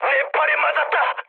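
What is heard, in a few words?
Korean damaged pilot mood med, speech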